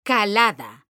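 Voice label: "calada", Spanish, female; mekanta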